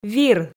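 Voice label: "вир", Komi, female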